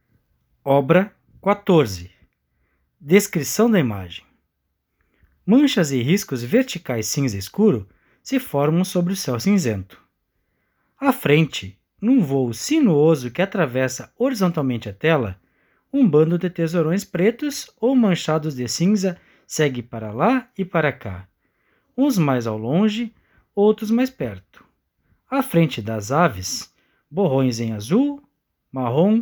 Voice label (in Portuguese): audiodescricao; Galeria virtual